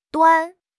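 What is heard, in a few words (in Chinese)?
"端", Chinese; HSK6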